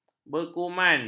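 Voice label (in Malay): "berkuman", Malay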